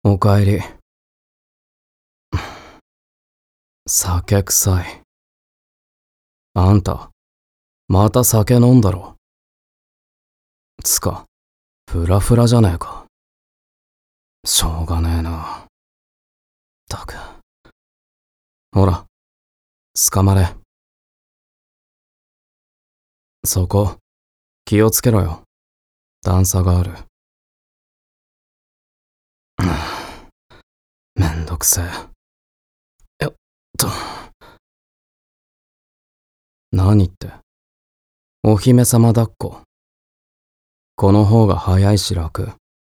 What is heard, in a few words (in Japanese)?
voice 闇 COOL